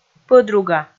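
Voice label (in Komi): "пӧдруга", Komi, female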